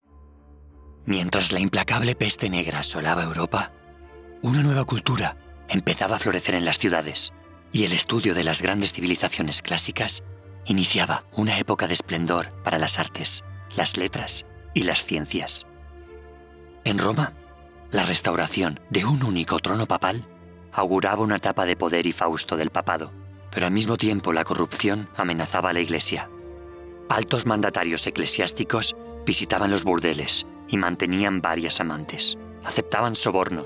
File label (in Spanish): NARRACIÓN ESPAÑOL